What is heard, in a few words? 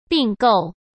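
"并购", Mandarin Chinese; hsk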